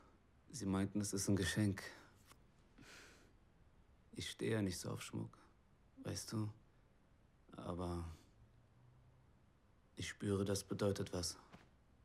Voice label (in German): melancholisch; Synchron; Regie: Marius Clarén